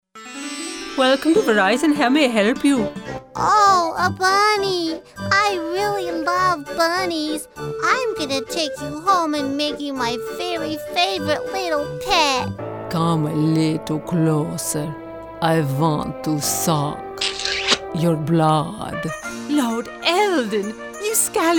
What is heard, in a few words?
ANIMATION